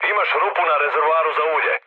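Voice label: Serbian damaged oil mood med, speech; War Thunder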